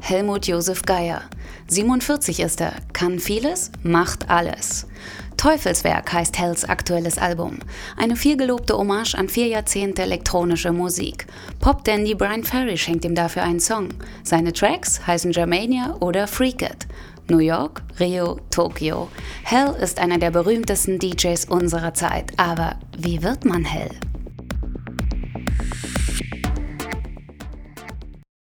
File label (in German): feature, deutsch frauen; sprecher